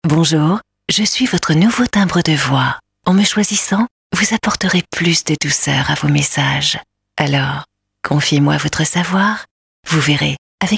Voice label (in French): Français; casting de voix-off; taurus-studio